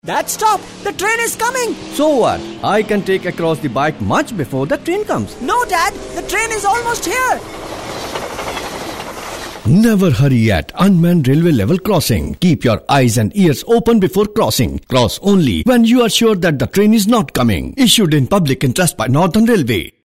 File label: English, male voice